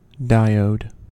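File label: "diode", US English; pronunciations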